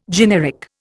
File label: "generic", Indian